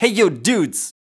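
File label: vocal male, Dance Vocals, spoken words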